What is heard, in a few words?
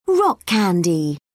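"rock candy", British English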